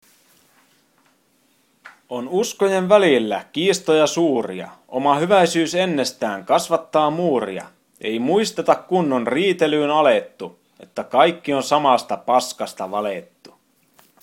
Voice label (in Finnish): suuret monoteismit, poetry